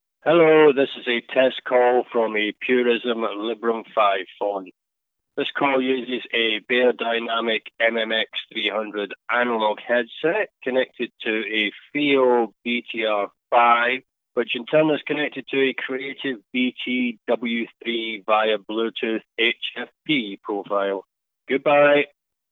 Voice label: Test Message, Beyerdynamic MMX 300 analog headset to Fiio BTR5 to Creative BT-; Librem 5